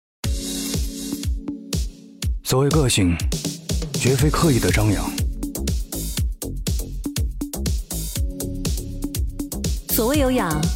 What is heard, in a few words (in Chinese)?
男 高端品质感; 荣耀9 灰蓝配，天生一对